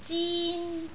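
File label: "zin1", Cantonese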